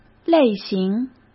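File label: "类型", Mandarin Chinese; hsk; chinese-flashcards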